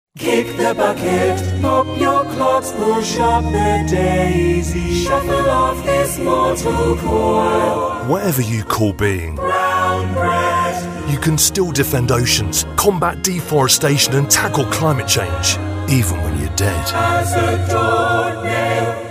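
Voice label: radio ad, Velvety British Voice; greenpeace radio ad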